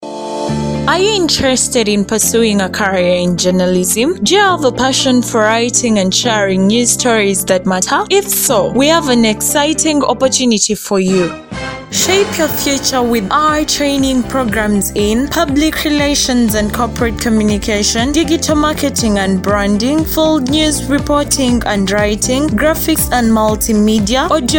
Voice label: advert; PARROTS UG